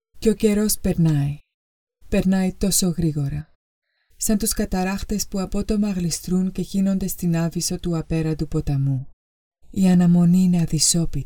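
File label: Greek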